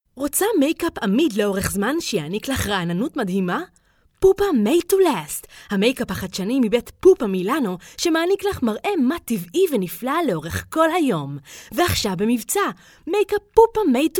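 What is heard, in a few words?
Hebrew female Commercial; voice over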